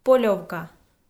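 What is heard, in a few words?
"полёвка", Komi, female; kpv audio dict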